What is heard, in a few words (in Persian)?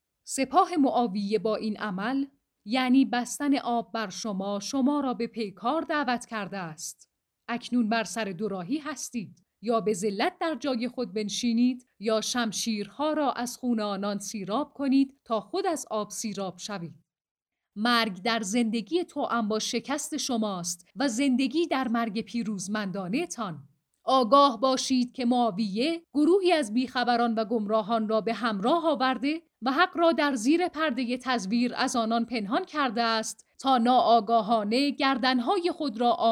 حماسی, لحن حماسی; خطبه امام علی در جنگ صفین